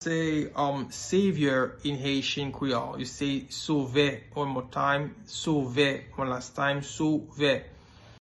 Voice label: Savior in Haitian Creole Sove pronunciation